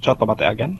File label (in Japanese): "チョットマッテ" again; 英会話凸